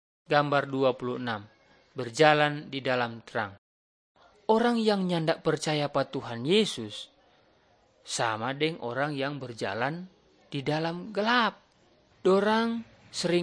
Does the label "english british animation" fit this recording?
No